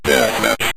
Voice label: "deathmatch", Synth Voice; Kenney's Sound Pack